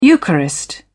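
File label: "eucharist", British English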